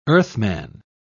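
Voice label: "earthman", British English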